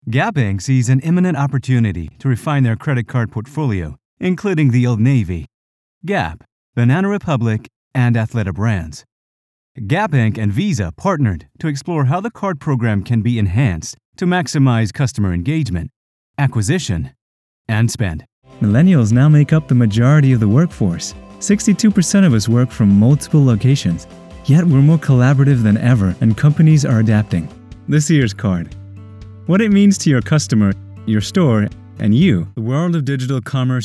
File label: American corporate